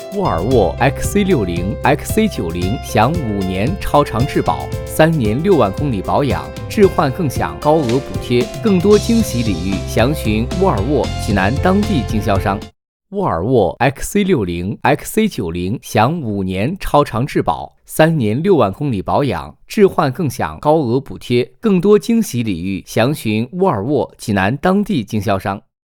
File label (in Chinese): 广告青春; 沃尔沃